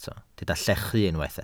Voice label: "llechu", Welsh